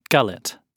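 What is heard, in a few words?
"gullet" British English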